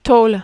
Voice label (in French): "taule", French